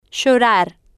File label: "llorar", Spanish